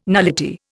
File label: "nullity", Indian